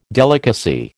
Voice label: "delicacy", American

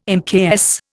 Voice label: "mks", Indian

